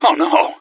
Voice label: oh no, gasmask; scmod